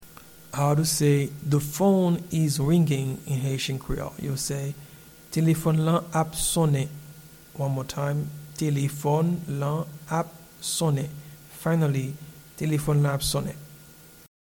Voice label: The phone is ringing Telefon lan ap sonnen, Haitian Creole audio pronunciation; “The phone is ringing” (English) translates as “Telefòn lan ap sonnen” in Haitian Creole